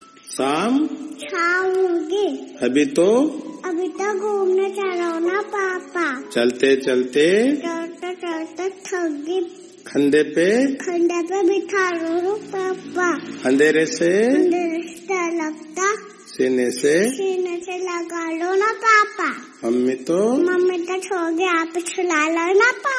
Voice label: poem; daughters birthday